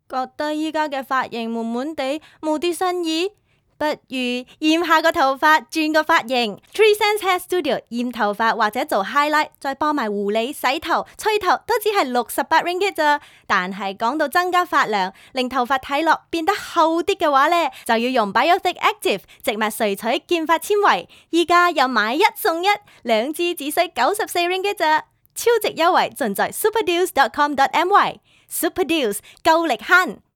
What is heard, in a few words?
Cantonese Sample